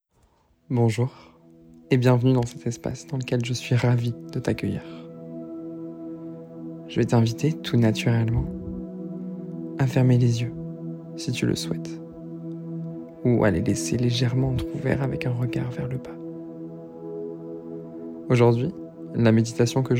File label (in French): meditation Ancrage; meditations